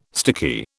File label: "sticky", British